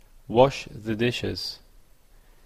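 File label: "wash the dishes", Canadian English; babelcoach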